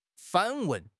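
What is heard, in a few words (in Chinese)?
"反问", Mandarin Chinese; HSK6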